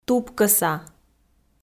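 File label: "тупкӧса", Komi, female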